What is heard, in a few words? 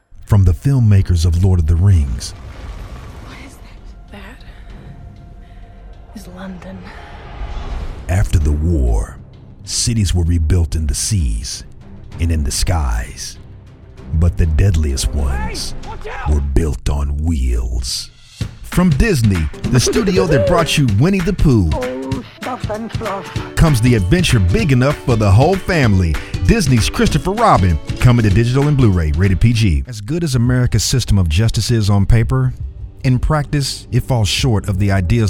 Promo, Men Promo Trailers